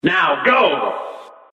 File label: narrator now go; cuphead